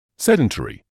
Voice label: "sedentary", English

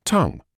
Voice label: "tongue", medical English

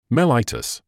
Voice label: "mellitus", English

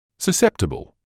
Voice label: "susceptible", English

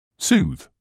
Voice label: "soothe", English

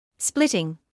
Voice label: "splitting", English word

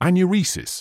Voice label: "anuresis", medical english uk; an-yuu-REE-siss; ˌæn.jʊˈriː.sɪs

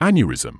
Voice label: "aneurysm", medical english uk; ˈæn.jə.rɪ.zəm; AN-yuh-ri-zuhm

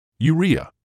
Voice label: "urea", medical English

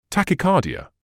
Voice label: "tachycardia", English

